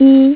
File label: "3" female mandarin